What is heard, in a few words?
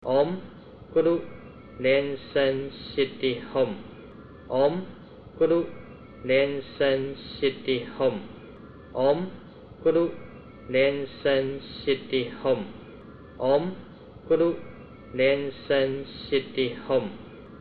recites padmakumara mantra